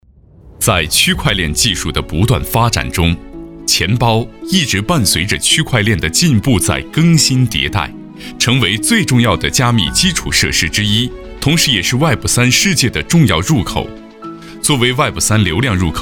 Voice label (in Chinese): 男 专题; 区块链技术